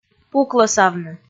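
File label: "пуклӧсавны", Komi, female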